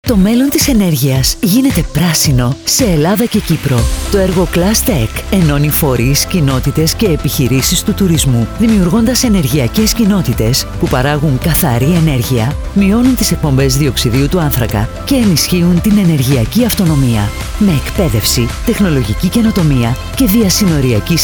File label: radio spot; ClusTECH